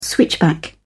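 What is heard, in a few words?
"switchback", British English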